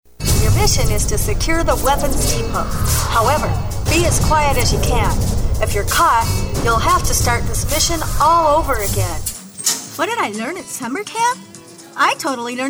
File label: characters short